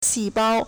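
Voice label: "xìbāo", Chinese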